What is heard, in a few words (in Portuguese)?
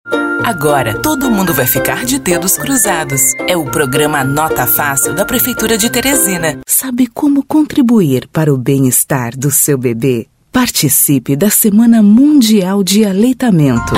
VOZ LOCUTORA PB